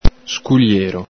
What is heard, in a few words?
"sculiero", Veneto